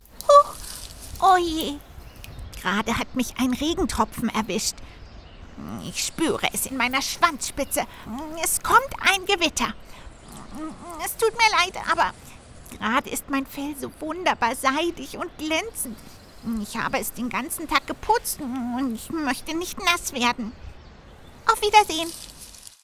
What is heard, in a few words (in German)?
Trickstimme Maus